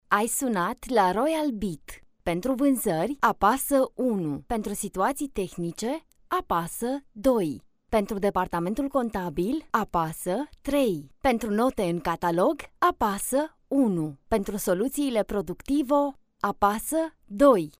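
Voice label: romanian interactive voice response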